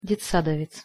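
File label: "detsadovec", Russian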